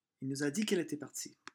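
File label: "il nous", French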